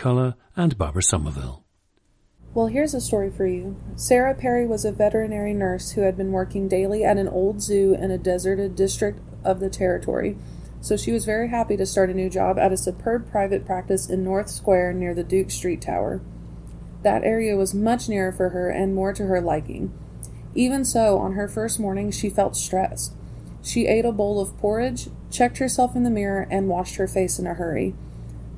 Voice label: kentucky, dialects